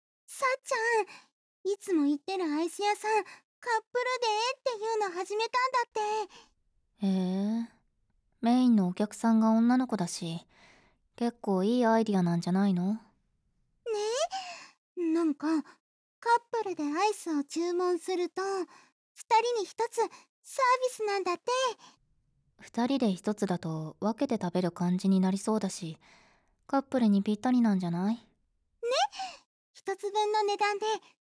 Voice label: カップル割, ASMR

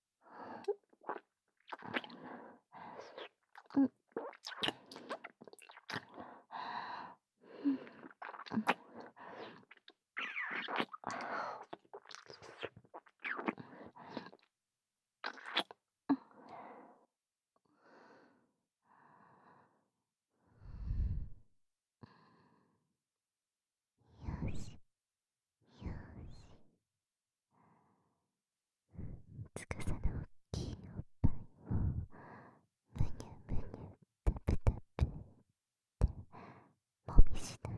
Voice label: 騎乗位 キス 囁き 耳はむ 耳舐め 甘オホ絶頂; 効果音なし

